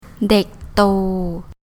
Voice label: "Dèk Dto", Thai

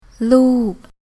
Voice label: "Lûuk", Thai

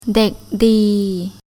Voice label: "Dèk Dee", Thai

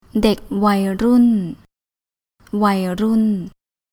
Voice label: "Dèk Wai Rôon", Thai

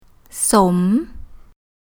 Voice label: "สม", Thai

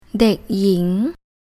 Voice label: "Dèk Yĭng", Thai

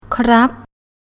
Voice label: "Krúb", Thai

